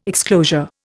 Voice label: "exclosure", Indian